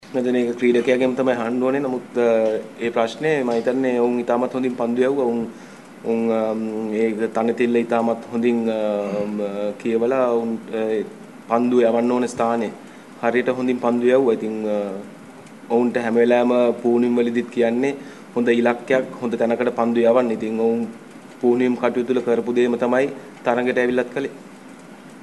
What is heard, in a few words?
media conference sinhalese; haminda vaas